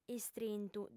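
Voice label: "istrintu", Sardinian, female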